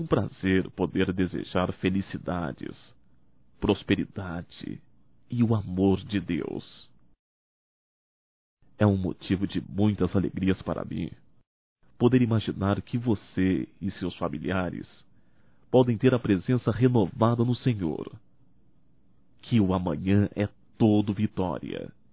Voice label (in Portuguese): Ano Novo Geral Masculino; Natal e ano novo; Telemensagens 2017